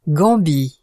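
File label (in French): "Gambie" French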